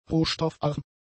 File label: "rohstoffarm", German; adjectives